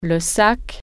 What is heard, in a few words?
"bag", French